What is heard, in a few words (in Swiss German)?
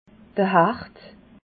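"hecht", Alsatian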